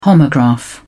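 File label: "homograph", British English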